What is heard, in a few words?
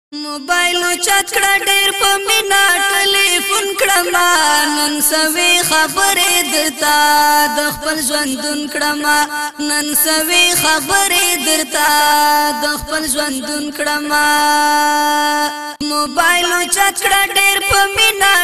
pashto Funny Tone